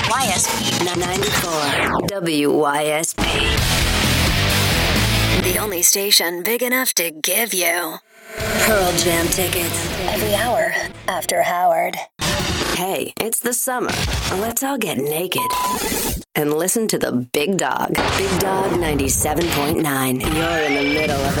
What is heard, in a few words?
Radio Imaging Rock Alt Rock